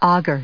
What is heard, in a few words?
"augur", us